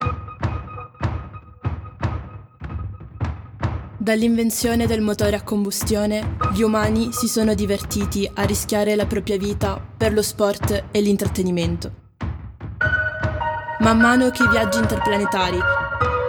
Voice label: Intro Vox Italian; quantum runners windows gamerip 2021

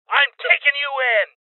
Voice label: Voices Order To Surrender Male; Rebel Cops

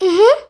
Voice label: boy rollover; Wii

